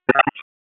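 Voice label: Police Southwest District Tac, radio; Raleigh; Tac 1; p25; wake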